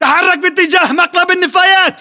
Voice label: order cover dumpster, battle chatter, english